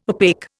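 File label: "opaque", Indian English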